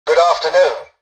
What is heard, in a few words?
tutorial dialogue, english; War Thunder